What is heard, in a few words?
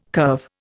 "curve", Indian